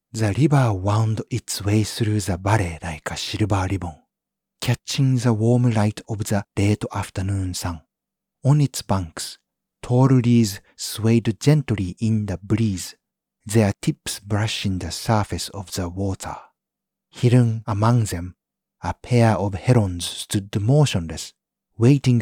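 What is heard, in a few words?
Japanese, male, English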